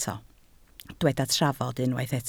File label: "trafod", Welsh